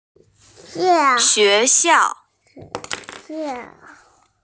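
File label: "xue2xiao4", Mandarin Chinese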